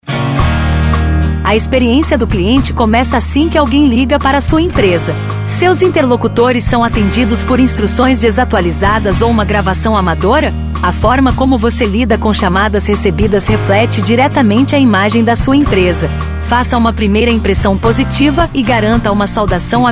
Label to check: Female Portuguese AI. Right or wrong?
Right